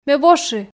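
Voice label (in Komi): ме воши, Komi, female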